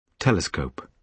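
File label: "telescope", British English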